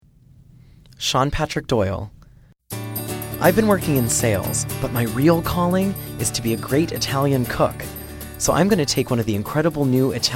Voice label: Commercial VO